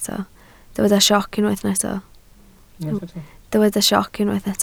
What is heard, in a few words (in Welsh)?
"sioc" Welsh